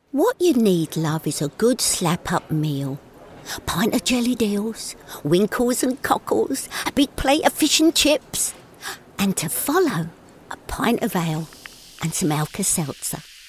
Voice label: voiceover